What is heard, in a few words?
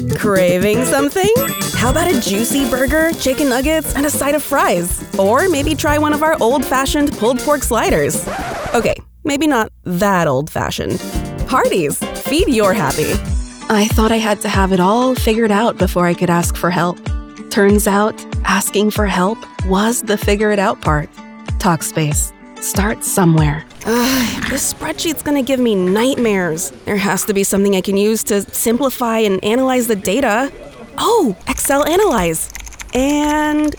Commercial